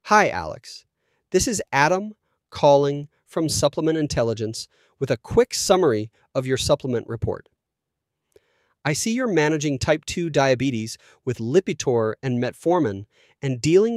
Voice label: voicemail